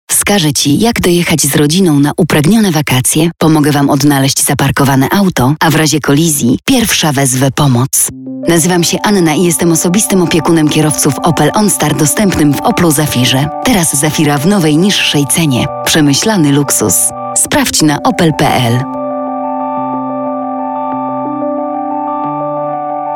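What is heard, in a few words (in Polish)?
reklama, advert; zafira onstar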